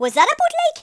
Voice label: "just you wait", Geordie; speech